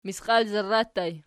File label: "misqialzarra", Archi